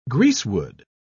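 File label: "greasewood", British English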